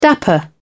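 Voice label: "dapper", British English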